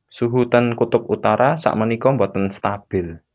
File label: sample javanese